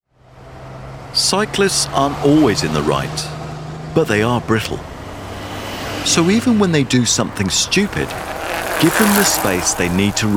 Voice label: psa